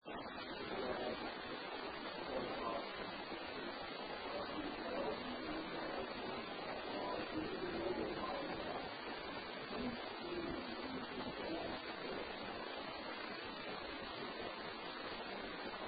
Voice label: UNEXPLAINED WHISPER FEMALE; Case 023 Major Graham Mansion; Basement

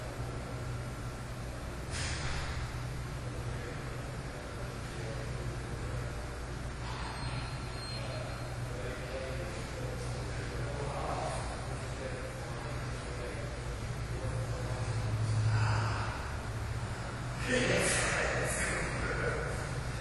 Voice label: Fuel Building 3rd Floor Hallway male voice; Pocahontas Fuel Building

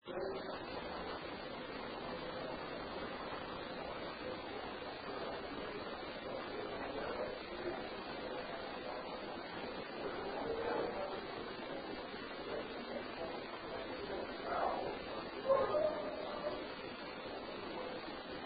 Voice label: Unexplained Male voice; Case 028 Molly Tynes House; Master Bed Room

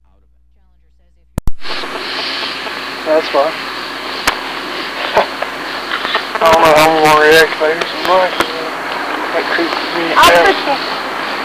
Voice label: Young Childs Voice; I Push It

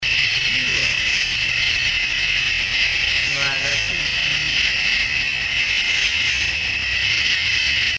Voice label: Cemetery, male voice; Case 016 Hebrew-Bryant

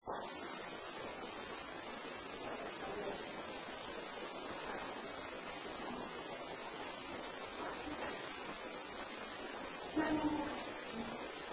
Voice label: Little girl voice; Case 020 Ballard Building; Unaudiable

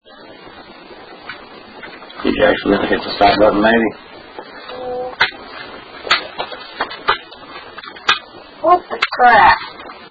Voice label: Parents BR, female voice no; Case 007 Webb Home

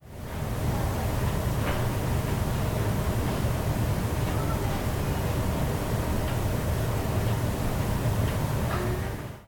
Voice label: Unexplained Low Female Voice; Mommy; Amplified

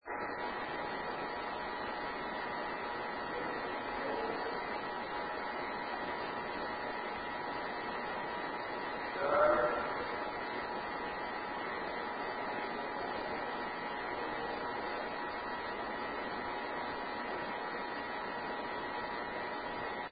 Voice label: male voice; Case 012 Pocahontas Fuel Building 2nd Floor Art Room

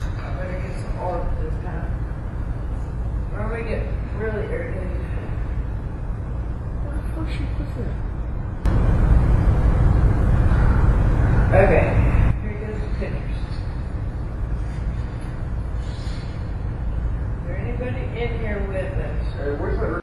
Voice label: Theatre Stage Whisper; (Over Hear) AMP

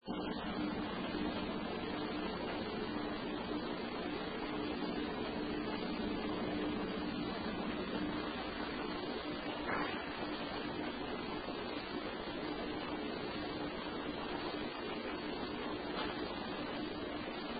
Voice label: Unexplained Whisper; Mahood Hall Bluefield State College; 1st Floor

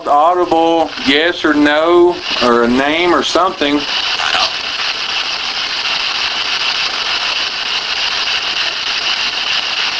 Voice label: Unexplained Male Voice (How); Case 029 Christian Home; using the franksbox

